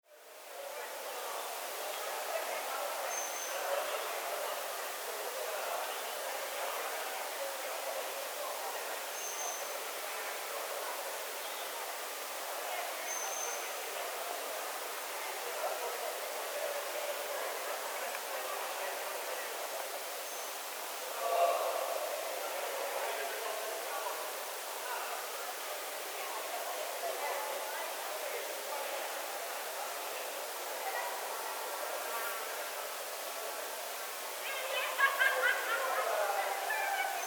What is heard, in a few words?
people voice in the forest; alishan